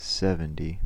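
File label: "seventy", US English; pronunciations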